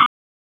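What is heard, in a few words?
Raleigh Police Downtown District Tactical, p25; wake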